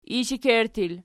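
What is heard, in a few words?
"ishekerttu" plural, Archi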